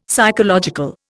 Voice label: "psychological", Indian; Dictionary